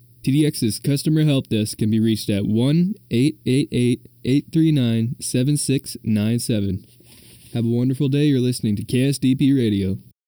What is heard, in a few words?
Advert Kiosk Advertisment